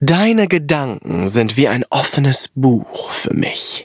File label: levelup de; Cookie Run